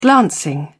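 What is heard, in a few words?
"glancing", English, British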